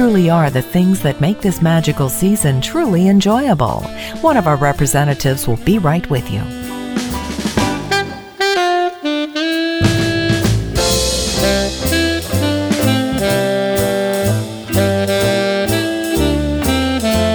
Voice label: Holiday, onholddemos